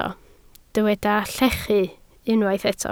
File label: "llechu", Welsh